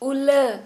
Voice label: "Vomit", Santali

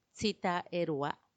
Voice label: "tsitaaerua", thirtyfour, macuiltianguis; treinta y cuatro

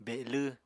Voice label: "belw", Apatani

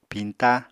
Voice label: "piñta", Apatani

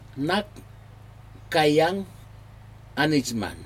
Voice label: nakaiyag anijman palm of hand slow, aneityum

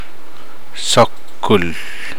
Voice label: chicken egg, Namolukese

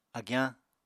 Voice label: "agyañ", Apatani

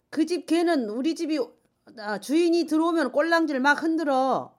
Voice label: tail ex, jeju

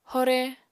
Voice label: "hore", apatani

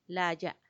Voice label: "laya", tooth, macuiltianguis; diente

